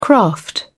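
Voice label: "craft", British English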